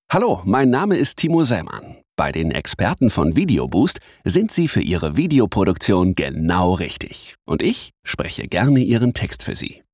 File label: Voiceover